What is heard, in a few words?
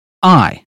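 "eye", British phonetics; 英语音标发音（剑桥版）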